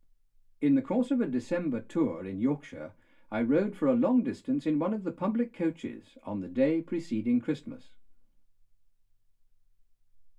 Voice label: male speech english